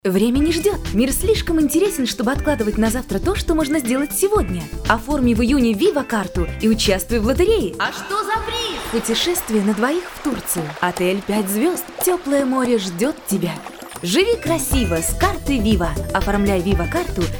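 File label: Russian, female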